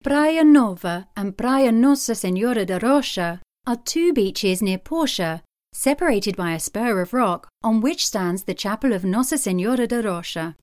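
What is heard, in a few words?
British English, Documentary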